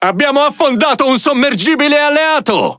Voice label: It Speech Destroy Ally Sub; World Of Warships